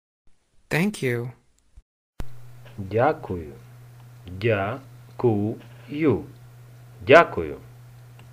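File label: Ukrainian Thank you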